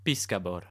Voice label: male fish, latin